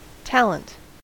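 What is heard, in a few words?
"talent", American English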